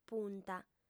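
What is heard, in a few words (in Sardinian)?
"punta", female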